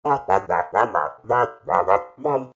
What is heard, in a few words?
Cog murmur